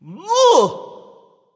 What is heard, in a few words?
grunt attack; Smite; bacchus